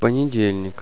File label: "monday", russian